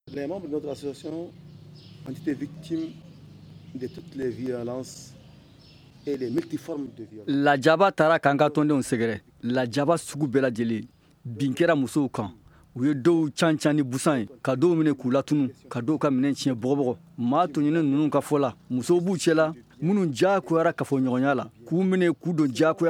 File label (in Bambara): magazine bamanankan